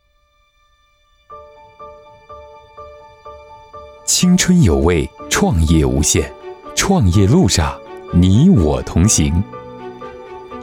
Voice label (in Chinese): 专题 磁性年轻轻快; 达创业